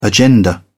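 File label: "agenda", British English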